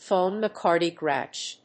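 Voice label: "PHONOCARDIOGRAPH", English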